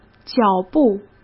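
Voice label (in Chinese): "脚步", Mandarin Chinese; hsk; chinese-flashcards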